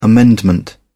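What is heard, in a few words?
"amendment", English, British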